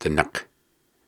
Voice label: Syllables and Imaginary Words, Hard, Viking Vocal; Viking Vocal Builder

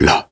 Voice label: Soft Syllables and Imaginary Words, Viking Vocal

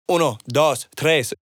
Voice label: 1 2 3 Spanish, 128 BPM, Vocal Phrases; House; Vocals

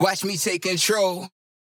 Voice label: Processed Phrase, Control, 145 BPM; Vocal Phrases; Cymatics; Euphoria Vocal Sample Pack

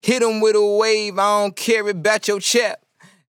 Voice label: Vocal Phrase "Wave" - 145 BPM; Dry; Vocal Phrases; Cymatics; Euphoria Vocal Sample Pack

